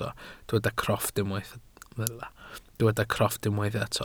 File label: "crofft", welsh